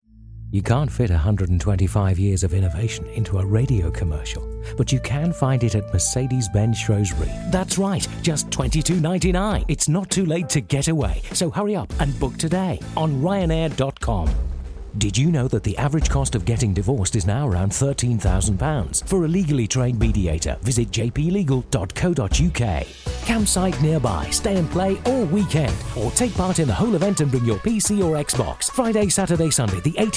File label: english british others